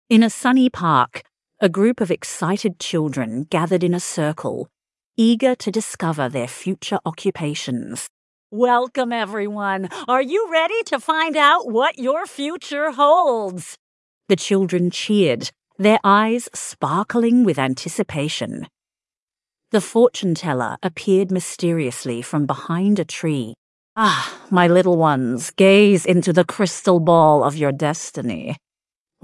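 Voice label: Tinker Tailor, female Australian story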